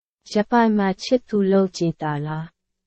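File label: Burmese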